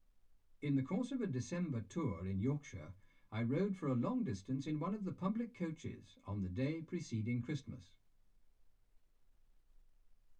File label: male speech english